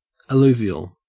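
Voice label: "alluvial", Australian English; pronunciations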